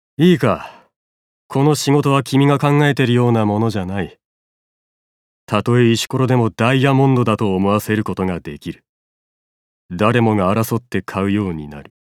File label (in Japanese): 広告マン40代, 盛岡弁